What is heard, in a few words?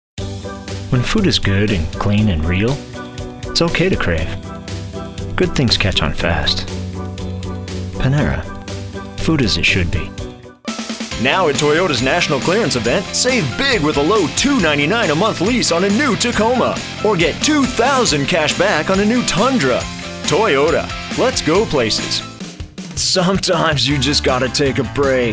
Commercial